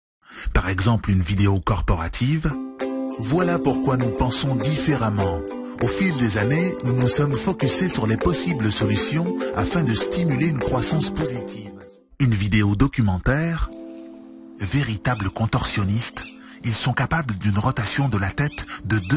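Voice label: French, male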